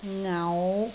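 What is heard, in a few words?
"ngau5", Cantonese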